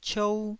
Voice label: "ciou1", Taiwanese

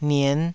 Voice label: "nien5", taiwanese

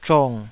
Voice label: "zhong3", Taiwanese syllable

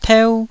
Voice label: "teu1", Taiwanese

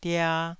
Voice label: "dia2", Taiwanese syllable

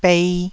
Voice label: "bei1", taiwanese syllable; 台語音節發音檔案

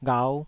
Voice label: "ghau5", Taiwanese syllable

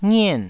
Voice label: "ngien4", taiwanese syllables

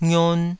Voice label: "ngion2", Taiwanese syllable

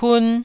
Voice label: "pun1", taiwanese

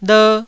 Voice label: "ler1", Taiwanese

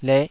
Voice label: "leh5", taiwanese syllables

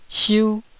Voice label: "siu1", Taiwanese syllable

